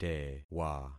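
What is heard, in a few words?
"tewa", concatenated syllables; Affixing experiment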